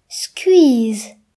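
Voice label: "tired", Breton; gcompris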